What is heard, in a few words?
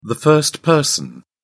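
"first person", British English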